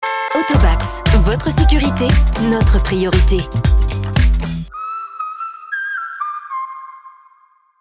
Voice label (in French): logo voix; AUTOBACS